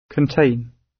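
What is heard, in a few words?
"contain", British; Business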